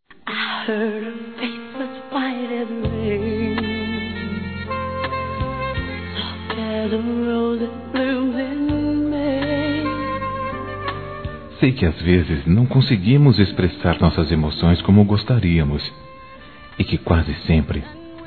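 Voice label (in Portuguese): DESCULPA ROMANTICA SUAVE, masculino; Desculpas; Telemensagens 2017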